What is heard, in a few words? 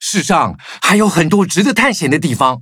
loading, zh-hant; Cookie Run